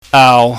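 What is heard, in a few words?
"aau", Cantonese, mid tone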